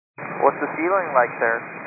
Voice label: 122 925MHz, scanner radio